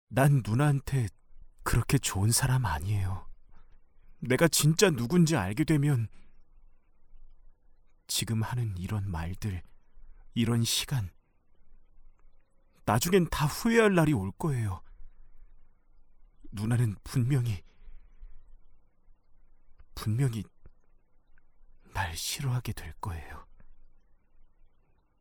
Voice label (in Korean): 10대 소년 순수 착한